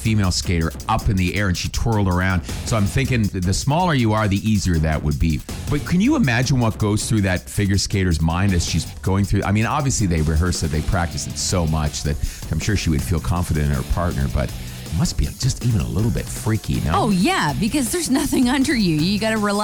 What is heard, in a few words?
Morning Show Promo; ROCK